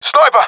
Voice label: sees sniper, professional; vo; Counter Strike Model Pack; CS:GO